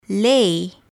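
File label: "lay" English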